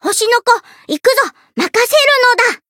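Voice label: variable chant, Japanese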